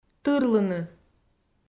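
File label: "тырлыны", Komi, female; audio dict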